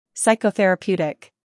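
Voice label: "scandal", American; psychotherapeutic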